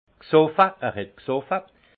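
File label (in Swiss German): "gsoffe", alsace Haut-Rhin; vin; bu est impregné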